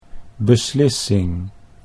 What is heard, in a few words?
"beslissing", Dutch